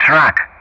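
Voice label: "truck", English; Ka-50; Player Target; DCS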